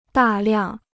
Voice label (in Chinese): "大量", Chinese